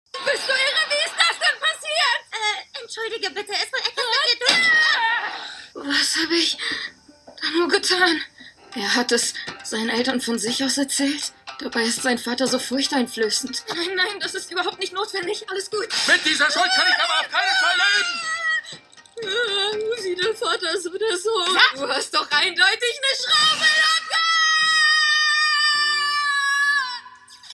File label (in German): entsetzt wuetend; Junichirou Jung; Tomo-Chan is a girl